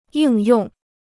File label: "应用", Chinese